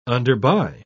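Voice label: "underbuy", British English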